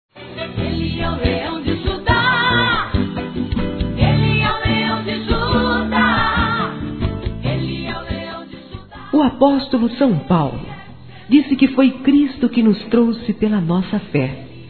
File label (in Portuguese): Evangélico Aniversário Geral Turma Feminino, Telemensagens; Aniversários